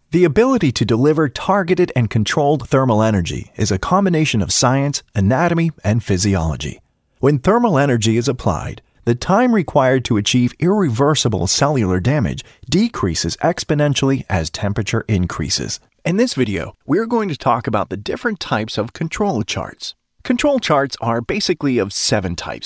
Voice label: Voiceovers eLearning